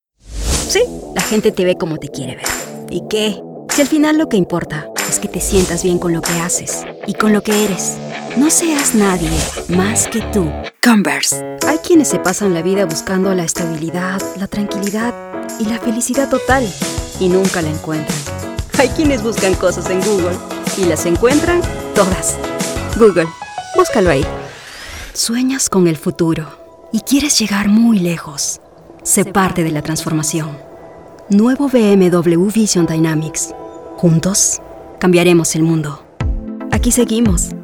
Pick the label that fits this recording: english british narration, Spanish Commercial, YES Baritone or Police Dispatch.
Spanish Commercial